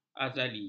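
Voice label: "azali", Malay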